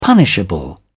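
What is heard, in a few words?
"punishable", British English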